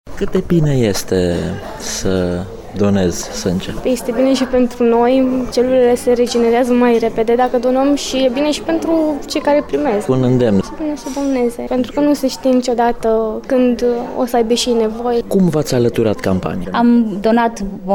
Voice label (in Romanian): Voxuri campanie sange